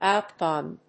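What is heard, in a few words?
"outgun", English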